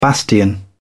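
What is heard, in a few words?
"bastion", British English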